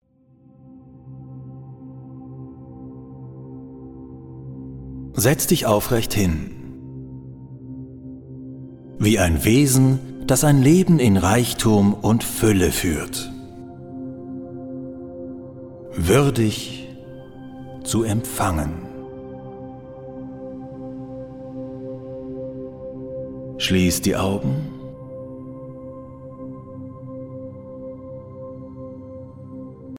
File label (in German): Hörprobe Deutsch